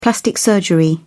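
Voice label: "plastic surgery", British English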